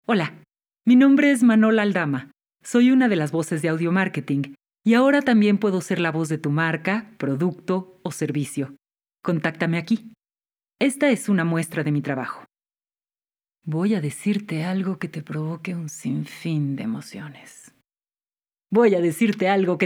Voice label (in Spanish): Locutora